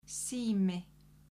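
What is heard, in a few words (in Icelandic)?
"sími", Icelandic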